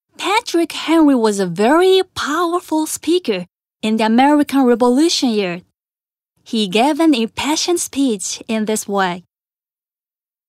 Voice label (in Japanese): 英語